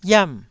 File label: "iam4", Taiwanese syllable